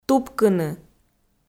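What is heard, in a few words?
"тупкыны", Komi, female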